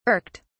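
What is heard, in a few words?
"irked", British English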